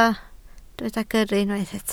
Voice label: "gyrru", Welsh